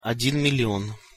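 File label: "odin million", Russian pronunciation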